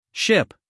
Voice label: "ship", en us male

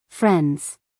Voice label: "friends" US English, female; everyone-can-use-english

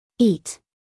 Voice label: "eat", us female; everyone-can-use-english

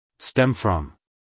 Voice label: "stem from" us male; everyone-can-use-english

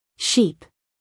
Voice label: "sheep", us female; everyone-can-use-english

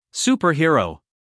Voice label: "superhero", us male; 1000 hours

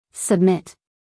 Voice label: "submit", us female; everyone-can-use-english

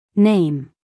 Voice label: "name" us female; everyone-can-use-english

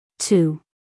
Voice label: "to", us female; 1000 hours

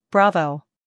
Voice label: "Bravo" us

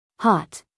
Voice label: "hot", us female

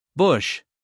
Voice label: "bush", us male; everyone-can-use-english

